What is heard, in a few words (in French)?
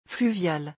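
"fluvial", French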